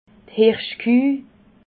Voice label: "hirschkueh", alsace

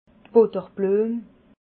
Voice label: "butterbluem", alsace

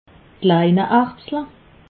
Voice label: "kleine erbsle", alsace

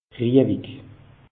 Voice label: "riewig", alsace; meteo

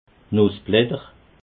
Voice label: "nussblatter", alsace

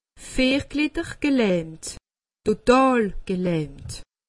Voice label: "total gelahmt", alsace; medecine

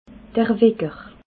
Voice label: "wecker", alsace

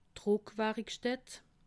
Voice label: "druckwarikstatt", alsace

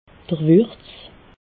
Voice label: "wurz", alsace

